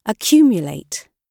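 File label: "accumulate", British English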